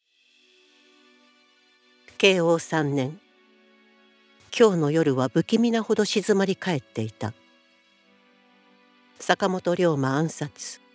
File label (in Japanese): オーディオブック 歴史